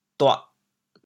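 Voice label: "duah4", Teochew, chaoyang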